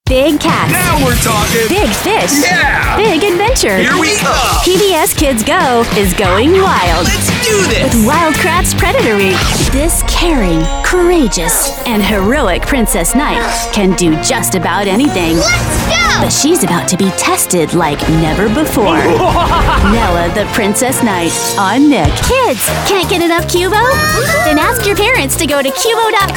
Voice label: kid teen promo; impressive talent agency

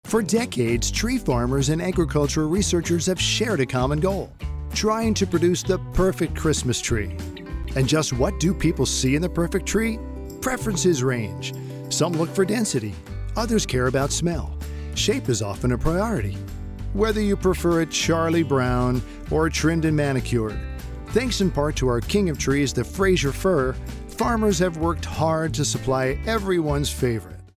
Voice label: Corporate

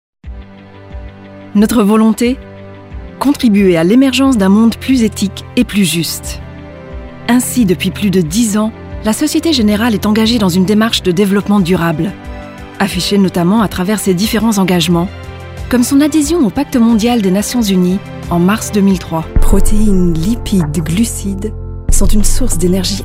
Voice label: French Narrative

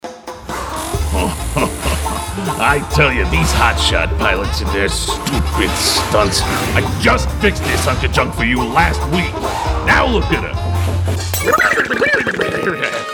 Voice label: Animation

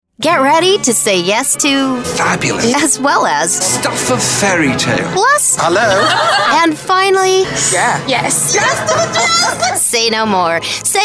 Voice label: Promo

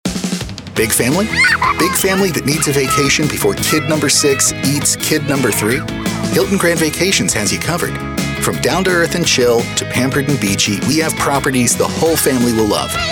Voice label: Commercial

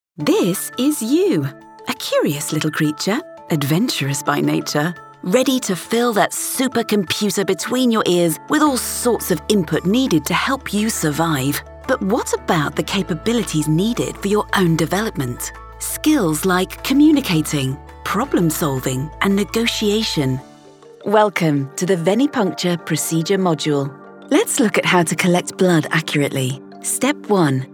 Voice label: eLearning British

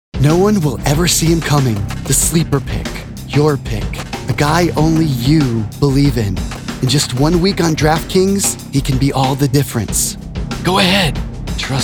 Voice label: Explainer

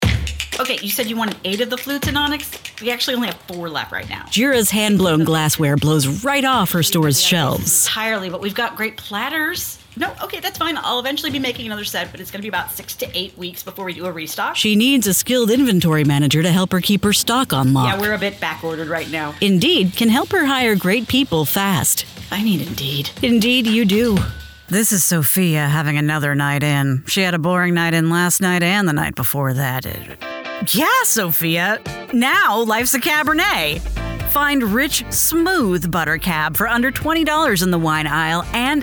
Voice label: Commercial Non Binary English

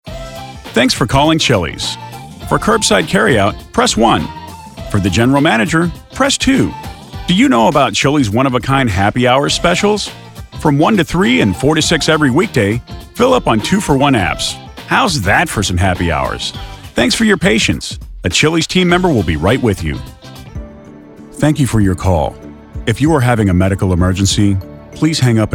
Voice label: IVR TELEPHONY

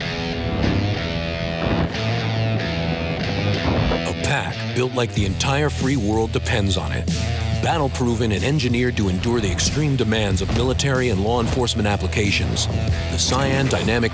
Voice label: Outdoor Rugged

